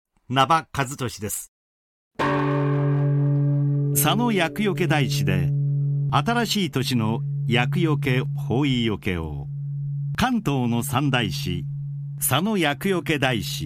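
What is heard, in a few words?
male, English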